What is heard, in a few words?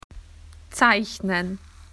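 "to draw", Australian English; PictureDictionary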